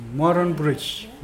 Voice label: brown, Mokilese